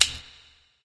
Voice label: vox click; smite; camazotz

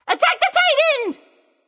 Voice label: vox attack; smite; thanatos

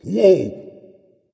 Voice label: fear dragon; fafnir

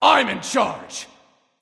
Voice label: vox emote; smite; anubis

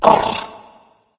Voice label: surprise; smite; anubis

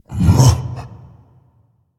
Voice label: surprise; smite; kukulkan

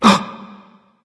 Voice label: surprise; smite; poseidon

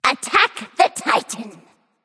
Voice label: vox attack m; smite; scylla

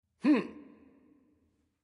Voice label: victory, vox; smite; voicepack; hercules

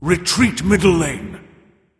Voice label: vox retreat; smite; xingtian

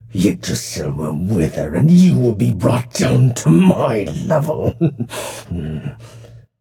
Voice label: taunt directed; smite; hebo; ratatoskr

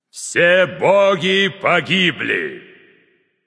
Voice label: kill all, russian; caster

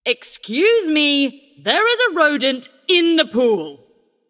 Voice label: taunt directed; ratatoskr; smite; morganlefay

